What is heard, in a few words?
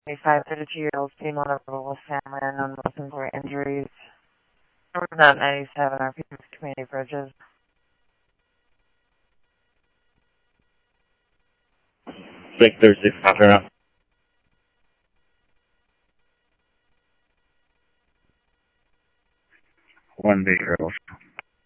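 Simulcast police department, scanner audio; Mesa Simulcast; MESA PD 2 Centrl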